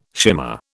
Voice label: "shimmer", British